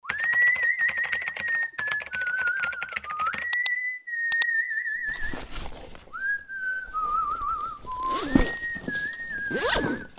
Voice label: Radio AD; FlyDubai